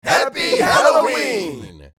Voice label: Mixed crowd happy halloween